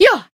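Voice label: Voice attack, Japanese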